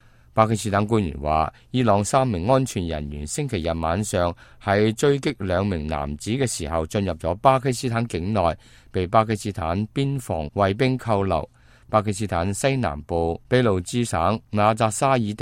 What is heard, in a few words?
cantonese, news